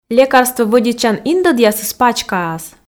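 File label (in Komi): лекарствоо вӧдитчан индӧдъяс пачкаас, Komi, female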